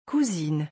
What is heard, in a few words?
"cousine", French